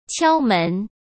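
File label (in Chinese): "敲门", Mandarin Chinese; hsk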